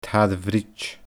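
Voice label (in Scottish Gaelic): "tair bhriod", Scottish Gaelic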